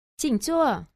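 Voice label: "qingzuo", Mandarin Chinese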